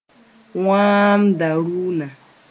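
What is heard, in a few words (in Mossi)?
"wãambdarʋna", Mooré; kind of tree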